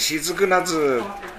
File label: "sezuku", minamiyamagata